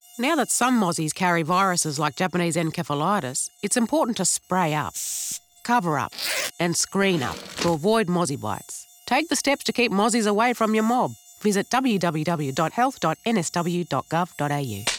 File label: radio; aboriginal; mosquito-borne